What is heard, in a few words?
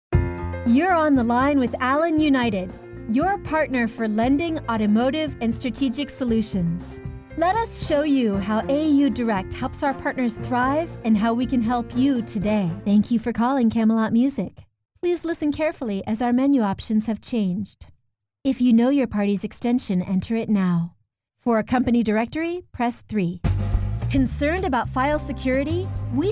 telephony